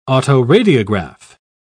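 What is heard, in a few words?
"autoradiograph", English, British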